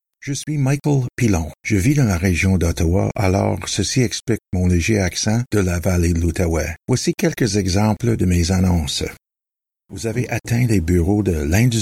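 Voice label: french canadian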